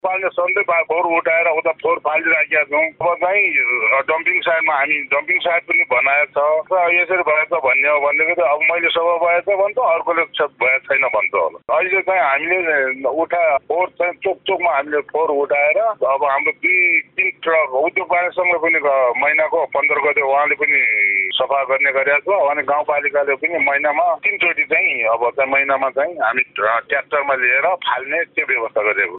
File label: News Byte; Humla